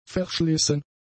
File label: "verschlissen", German; adjectives